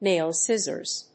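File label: "nail scissors", English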